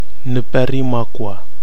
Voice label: "nipari makwa", Nafe (Kwamera)